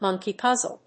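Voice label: "monkey puzzle", English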